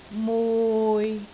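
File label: "mui6", Cantonese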